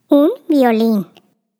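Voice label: "violin", Spanish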